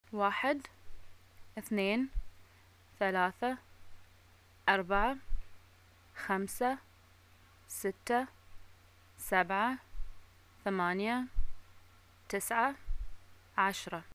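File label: numbers 1 10, Bahraini arabic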